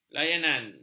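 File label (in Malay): "layanan", Malay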